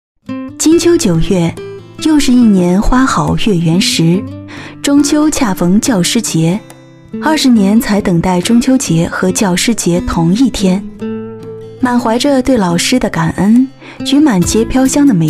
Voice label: 女 广告配音 甜美年轻; 金秋九月; 年轻稳重

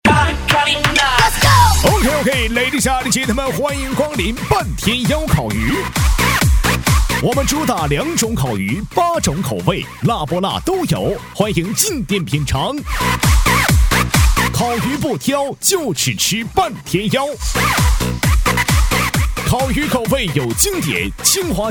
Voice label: 男W3-门市商业喊麦样音, 喊麦激情 夸张震撼

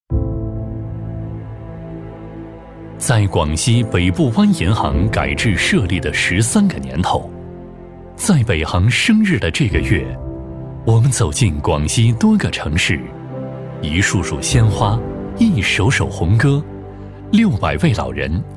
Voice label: 男 企业宣传 大气走心; 男59-刚柔并济 大气浑厚; 广西北部湾银行

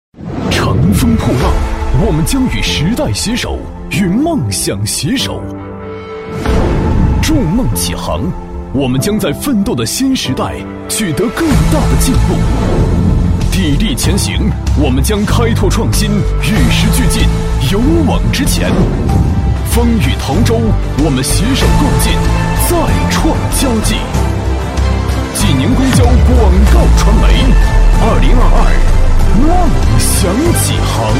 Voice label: 男 颁奖专题 大气激情; 公交集团; 大气激昂

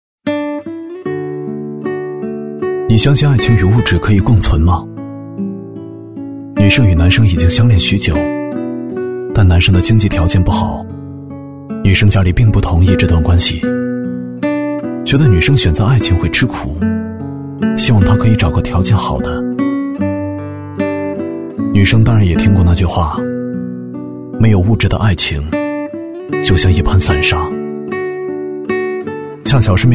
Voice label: 男 微电影 情感讲述; 物质与爱情

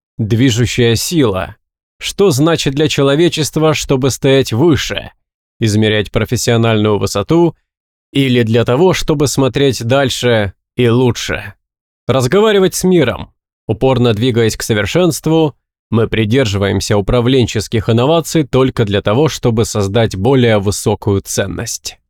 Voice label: 俄语男声

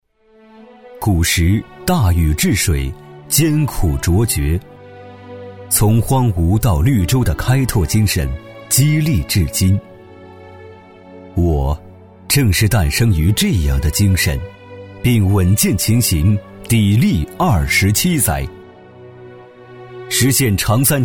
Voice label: 男 宣传片 走心稳重; 男D18-浑厚有力; 大气浑厚

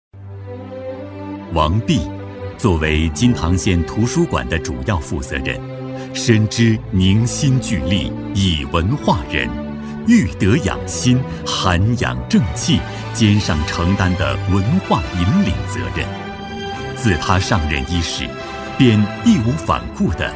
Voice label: 男 人物专题 人物专题 讲述; 《最美女职工领读人—王碧》

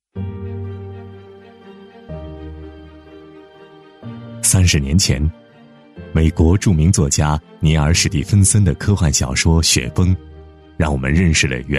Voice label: 男, 宣传片, 磁性讲解; 高端大气; 质感磁性; 元宇宙

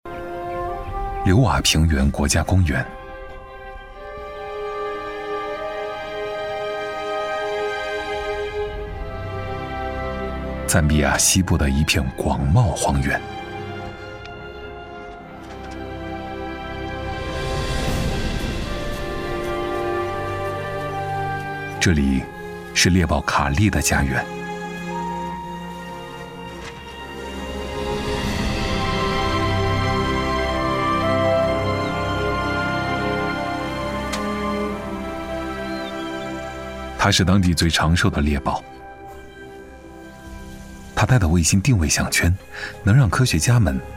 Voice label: 男 纪录片, 磁性沉稳 自然诉说; 猎豹; 王朝

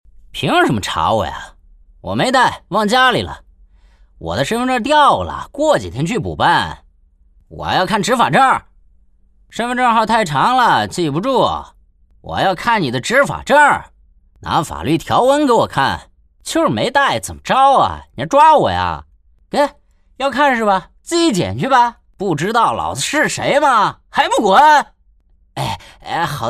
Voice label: 男 角色配音 中青年 痞里痞气; 声音百变; 幽默搞怪

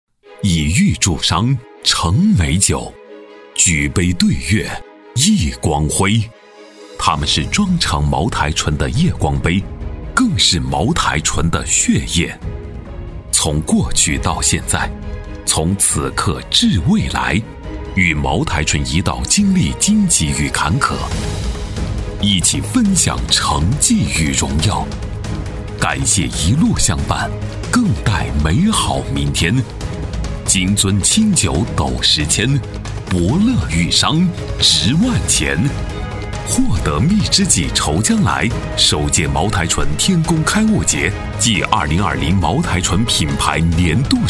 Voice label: 男 颁奖专题 大气硬朗; 茅台颁奖

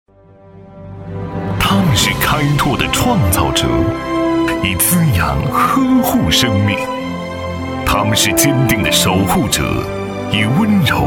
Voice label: 男 宣传片 妇女节 大气昂扬; 锡山妇女节; 时尚大气

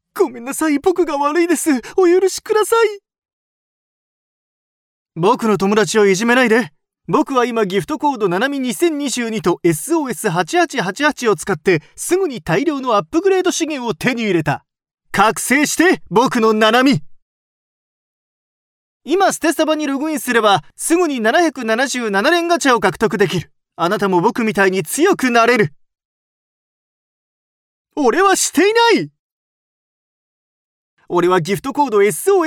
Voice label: 日语男声 信息流游戏

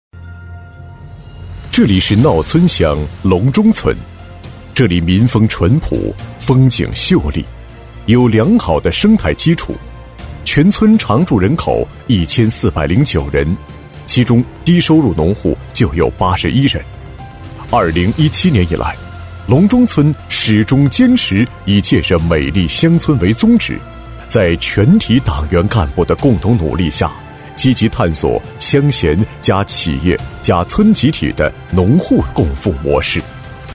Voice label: 男 政府专题 大气稳重; 百个支部看共富-红色引领打造乡村

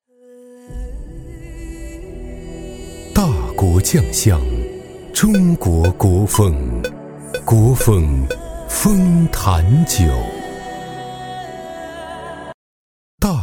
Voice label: 男, 品质广告, 高端 质感; 中英双语; 大气浑厚; 大国酱香

